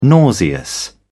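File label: "nauseous", British English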